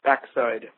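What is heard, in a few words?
"backside" US English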